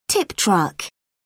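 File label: "tip truck", British English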